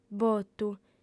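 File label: "botu", female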